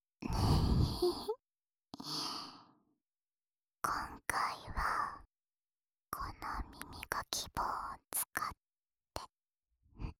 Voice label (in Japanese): 安眠用耳かきボイス（右耳）, ASMR